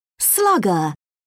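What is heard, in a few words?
"slugger", English, British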